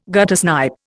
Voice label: "guttersnipe", Indian